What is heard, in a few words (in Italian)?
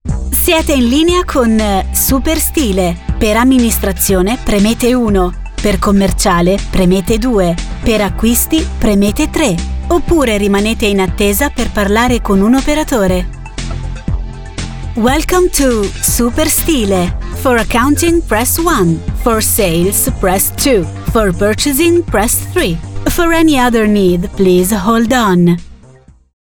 segreteria